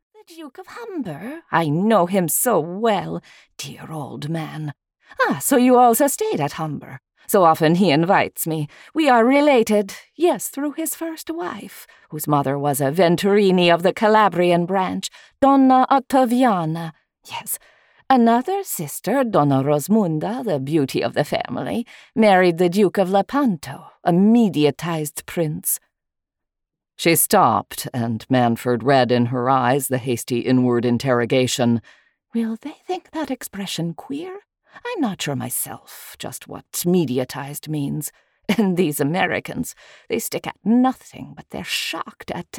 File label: Italian Sample